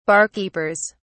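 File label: "barkeepers", British English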